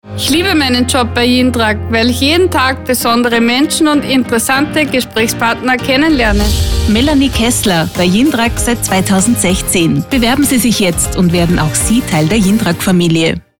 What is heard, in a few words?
SPOT